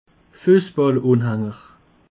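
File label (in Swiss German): "fussballanhaenger", Alsatian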